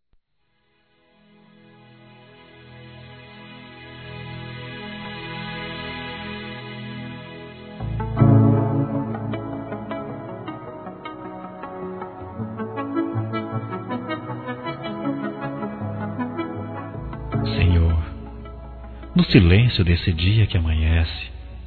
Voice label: ORAÇÃO PELA MANHÃ, Telemensagens Gospel masc

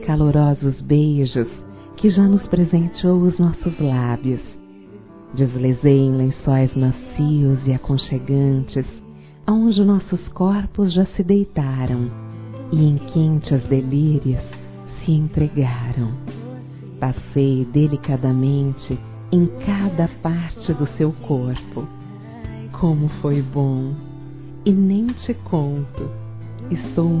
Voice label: Fazer amor com você, fem; Telemensagens; Saudades

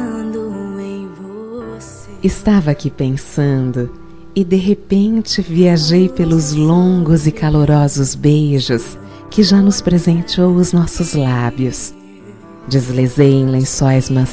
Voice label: SAUDADE DE FAZER AMOR COM VOCE FEM, Telemensagens; Saudades; ROM SAUDADE DE FAZER AMOR COM VOCE

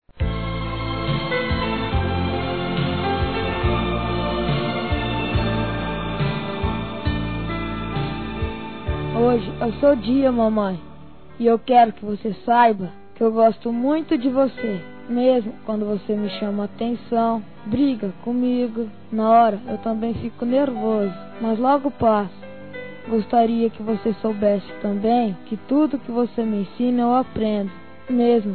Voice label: Dia Das Mães Para Mãe Masculino; Telemensagens 2017

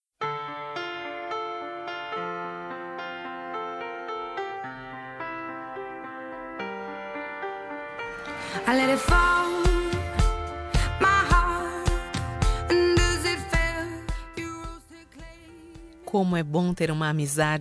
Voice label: DIA DOS PAIS AMIGO, fem; Telemensagens 2017

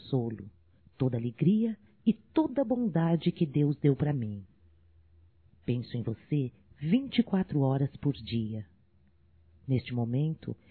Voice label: NATAL MAE, Telemensagens, fem; Telemensagens 2017; Natal & Ano Novo

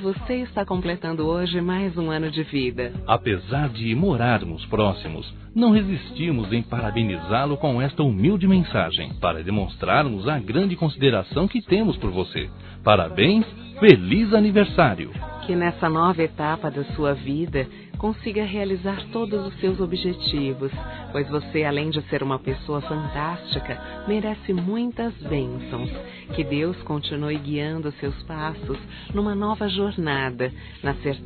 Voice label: VIZINHO, Telemensagens Aniversários

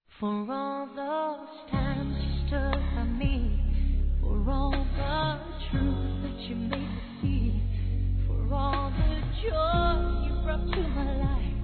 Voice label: Aniversário Pai Duas Vozes, Telemensagens, Fem; Aniversários; Aniv Familiar

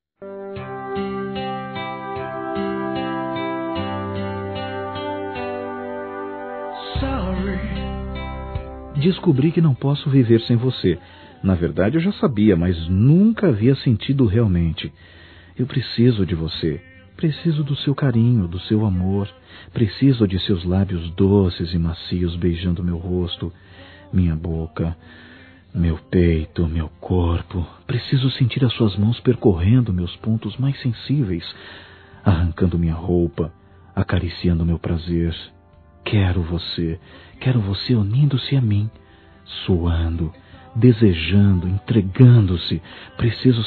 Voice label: RECONCILIACAO, Sensuais, masc; Telemensagens

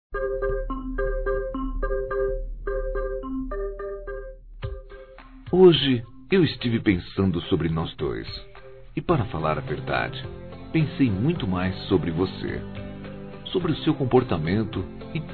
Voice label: Romantica Qual e a Sua Masculino

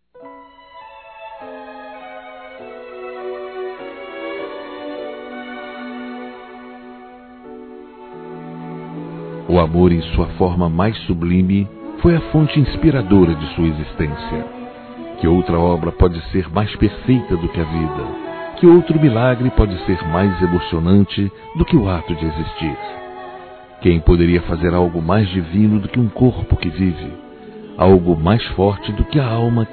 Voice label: Aniversário Católico Namorada Masculino; Aniversários; Aniversários diversos

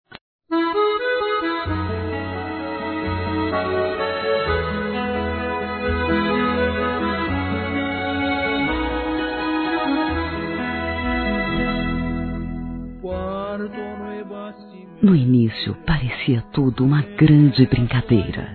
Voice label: Telemensagens Amor Fem; Telemensagens 2017

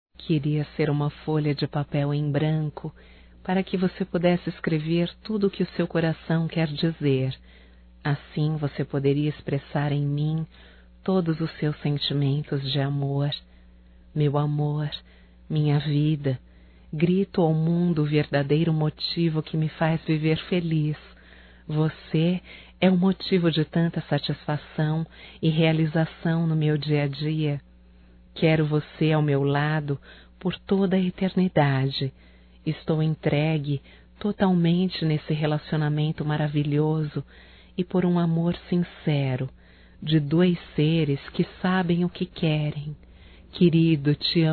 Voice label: DECLARAÇAO(F), Românticas fem

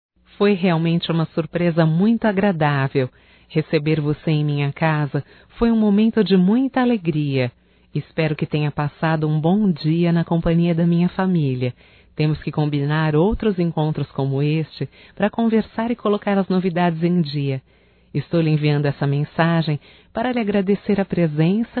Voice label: AGRADECIMENTO PELA VISITA, Só Voz, fem; Telemensagens; AGRADECIMENTOS

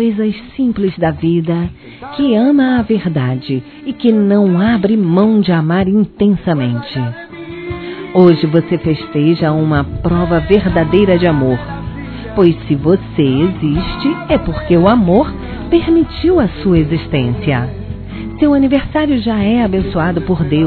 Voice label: Amante F; Aniversários; ANIVERSARIO romantico; fem

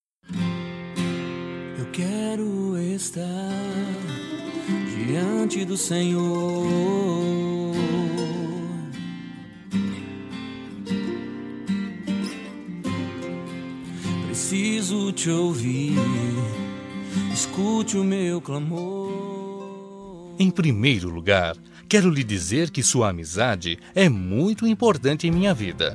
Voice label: Desculpas Irmã Evangelica Masculino; Temas Evangelicos

